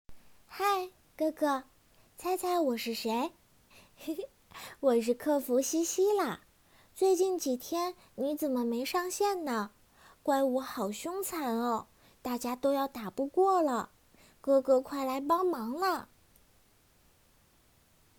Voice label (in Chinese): 可爱萝莉试音